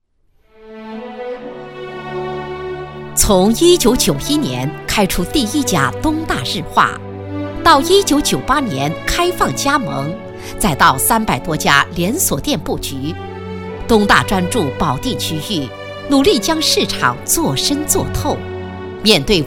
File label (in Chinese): 女 颁奖词配音